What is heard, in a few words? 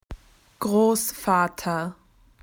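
"grandfather", Australian English; PictureDictionary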